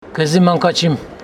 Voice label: Homshetsi i am not looking for you

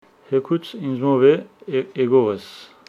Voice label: tomorrow you will come with me, Homshetsi

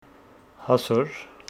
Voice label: Homshetsi today

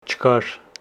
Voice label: Homshetsi there wasnt

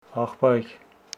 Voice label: Homshetsi my brother

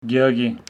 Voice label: Homshetsi sunday

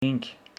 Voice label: Homshetsi five

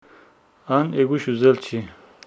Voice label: Homshetsi he doesnt want to come

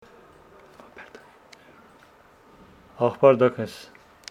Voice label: Homshetsi my two brothers

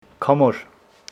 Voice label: Homshetsi oncoming day

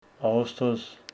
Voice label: Homshetsi august

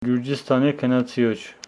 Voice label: i do not go to georgia, Homshetsi

